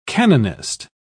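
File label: "canonist", British English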